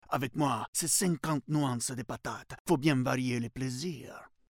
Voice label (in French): publicite